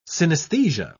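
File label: "synesthesia", British English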